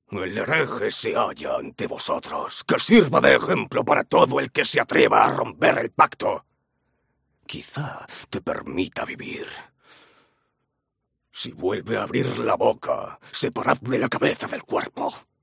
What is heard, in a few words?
male, videogames